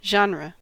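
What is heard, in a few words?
"genre", US English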